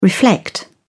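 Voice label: "reflect", English, uk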